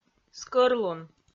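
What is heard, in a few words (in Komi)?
"скӧрлун", Komi-Zyrian, female; kpv audio dict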